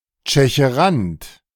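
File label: "Tschecherant", German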